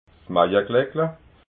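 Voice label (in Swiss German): "maiaglackla", Alsatian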